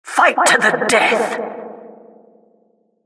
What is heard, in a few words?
announcer round start